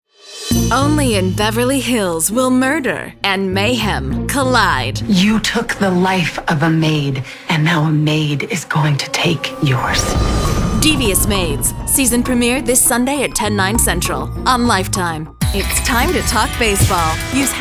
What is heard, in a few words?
promo, female voices